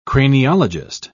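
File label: "craniologist", English, British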